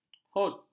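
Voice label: "hud", Malay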